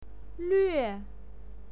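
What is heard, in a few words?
"luue" fourth tone, pinyin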